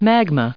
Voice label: "magma", us